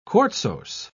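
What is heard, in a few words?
"quartzose", British English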